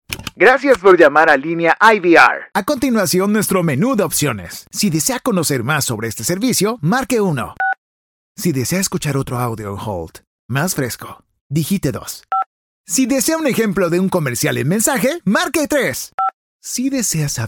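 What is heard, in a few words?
Latin American Spanish IVR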